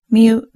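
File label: "myu", Japanese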